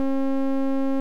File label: vocal code noise; vocalcode2B noise